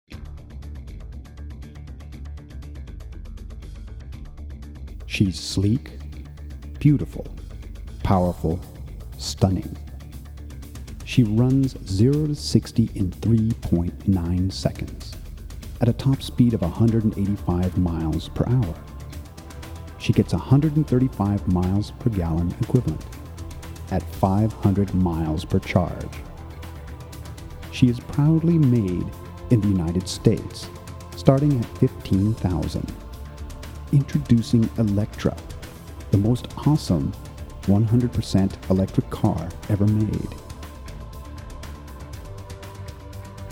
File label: Voice-Over; Body Tone Multimedia; Electra 2